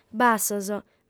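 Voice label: "bassos", Sardinian, female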